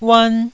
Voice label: "uan1", taiwanese Syllables

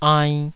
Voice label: "ainn2", taiwanese syllables; 台語音節發音檔案

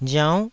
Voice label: "riaunn9", taiwanese syllable

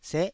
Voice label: "seh9", taiwanese syllable

